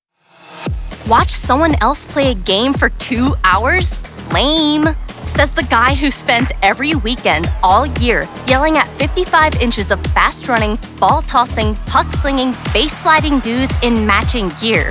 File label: explainer